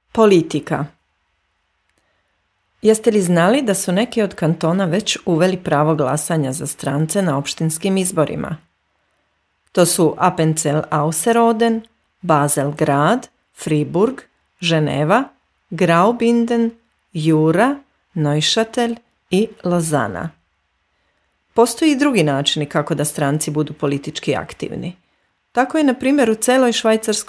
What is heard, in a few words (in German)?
serb